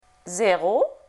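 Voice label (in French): "zéro", French; Lingvo; francais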